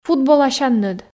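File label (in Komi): "футболасянныд", Komi, female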